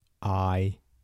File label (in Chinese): "帝", 台城, 男